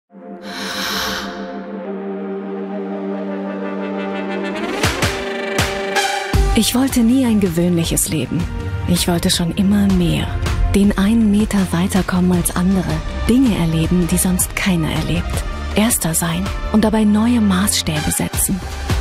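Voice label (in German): Kommentar Sanft; Miele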